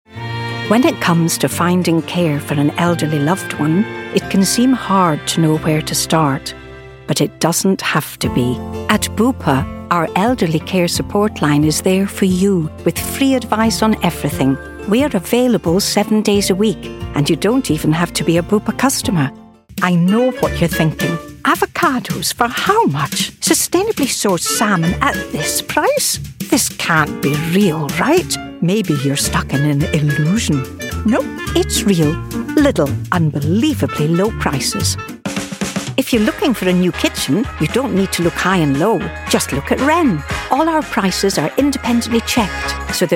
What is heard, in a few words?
mature Scottish commercial